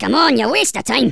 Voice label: COME ON THEN, Angry Scots; Worms Armageddon